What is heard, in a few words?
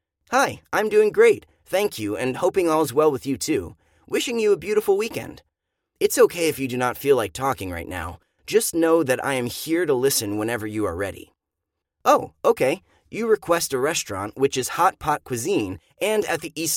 Voice Assistant